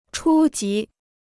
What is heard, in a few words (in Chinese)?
"初级", Chinese